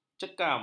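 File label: "cekam", Malay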